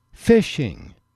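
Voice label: "fishing", English, male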